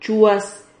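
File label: "chuas", Spanish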